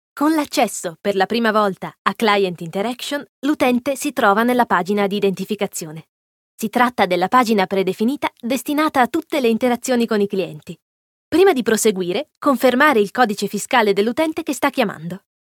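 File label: Italian elearning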